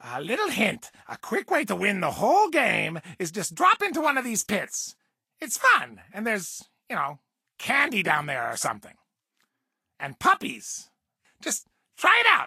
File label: English Voice